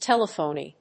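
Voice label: "telephony", English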